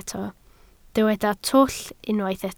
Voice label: "twll", Welsh